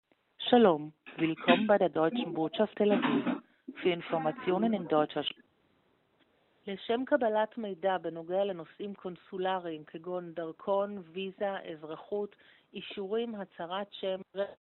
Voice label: call recording; GERMANY EMBASSY